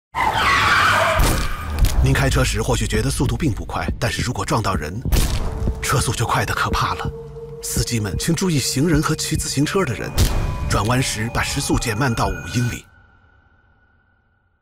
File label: chinese mandarin corporate videos